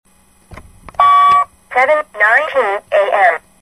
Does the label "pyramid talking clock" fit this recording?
Yes